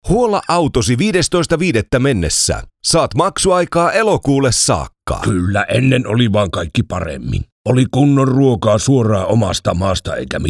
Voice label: Finnish e-learning